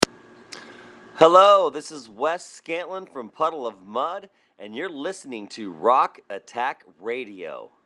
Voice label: Radio Voice ID; Rock Attack - Your Rock Radio